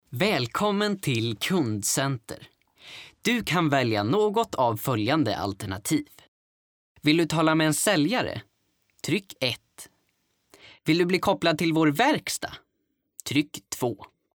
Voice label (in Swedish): Röstprov Telefonväxel